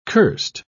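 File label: "curst", British English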